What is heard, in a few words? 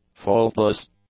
"faultless", english; adjectives